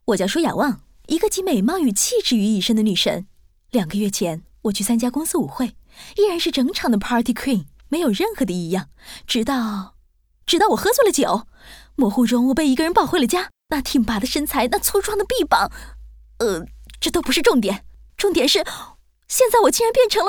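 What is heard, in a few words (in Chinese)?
女国大音-万万没想到风格-探案推理; 《夏有乔木》探案推理之怀孕风云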